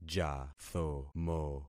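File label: "jathomo", concatenated syllables; Affixing experiment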